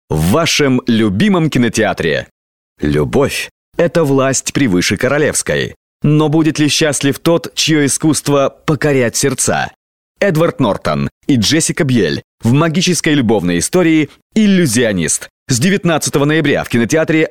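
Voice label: Russian, male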